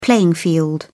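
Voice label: "playing field", British English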